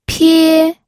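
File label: "pie", Mandarin Chinese, first tone; pinyin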